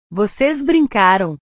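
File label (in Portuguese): "vocês brincaram", Portuguese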